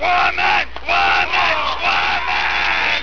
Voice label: woman; Frodo yelled